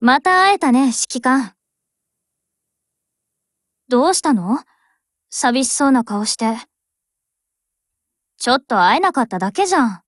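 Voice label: HELLO Japanese, Voice; GF Alarm; Grizzly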